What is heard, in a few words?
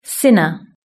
"sinner", British English